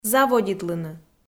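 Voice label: "заводитлыны", Komi, female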